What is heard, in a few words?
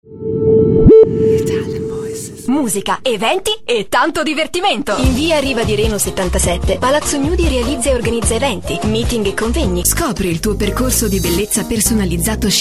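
ITALIAN VOICES Commercial, female voices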